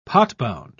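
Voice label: "potbound", British English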